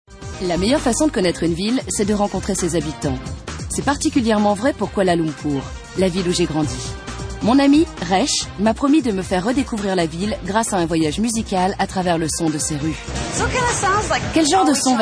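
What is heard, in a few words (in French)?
documentaire; National Géographic; Planet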